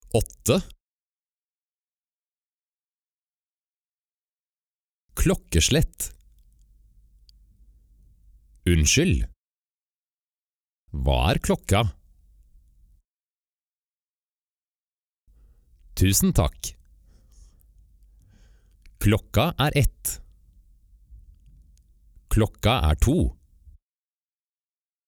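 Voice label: norwegian male e-learning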